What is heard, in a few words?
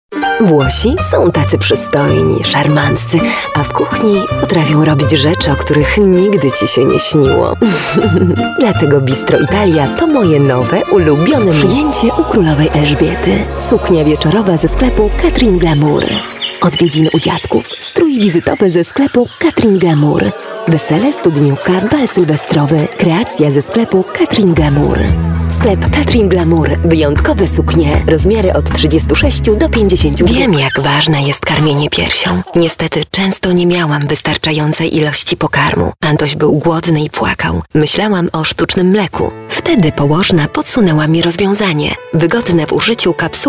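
voice female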